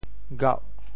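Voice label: "gauh8", Teochew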